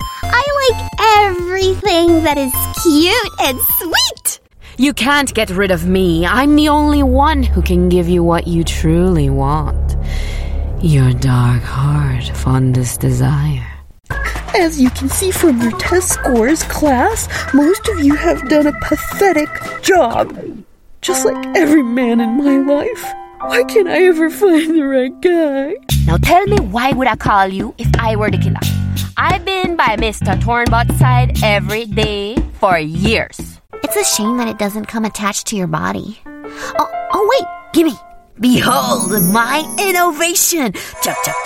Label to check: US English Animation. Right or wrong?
Right